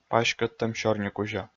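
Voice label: "паськӧдтӧм сёрникузя", Komi, male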